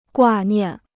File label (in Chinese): "挂念", Chinese